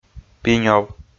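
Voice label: "пинёв", Komi, male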